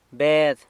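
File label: "Bed", San Lucas Quiaviní Zapotec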